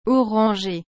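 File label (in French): "orangé", French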